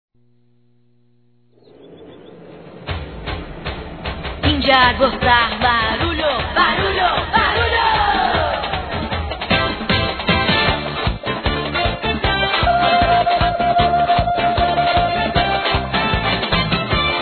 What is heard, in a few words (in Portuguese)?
Aniversário Afilhada Feminino; Aniversário Infantil; Telemensagens